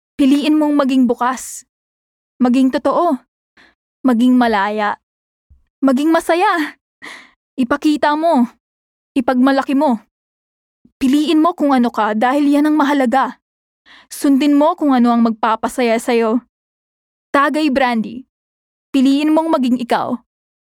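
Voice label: Tagalog (Conversational, Inspiring)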